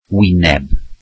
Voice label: welsh face

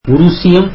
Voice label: tamil Russian

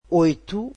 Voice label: "eight", Portuguese

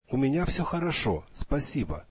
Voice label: russian Im good thank you

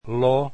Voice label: "l", Esperanto alphabet

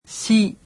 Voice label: japanese alphabet "shi"

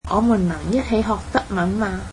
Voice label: cantonese can we learn German together

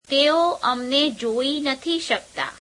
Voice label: gujarati he cannot see us; negative modal verb

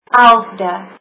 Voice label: eleventh, afrikaans

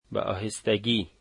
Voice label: "slowly", Dari

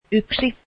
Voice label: one, finnish

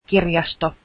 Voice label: finnish library

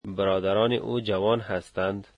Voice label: his brothers are young, dari

